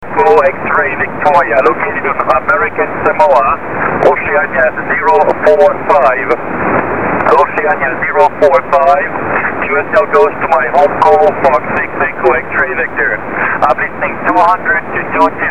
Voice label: 14 ssb